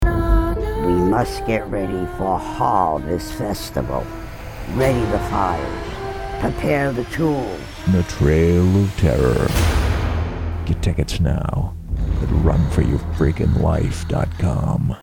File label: Radio; Trail of Terror 2015